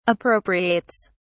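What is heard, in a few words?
"appropriates", British English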